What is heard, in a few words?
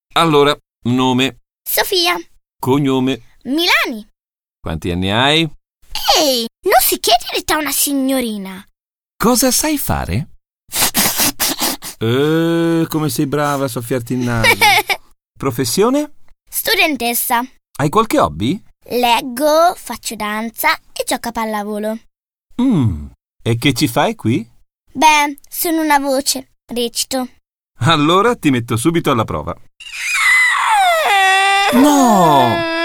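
Italian child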